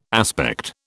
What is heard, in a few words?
"aspect", British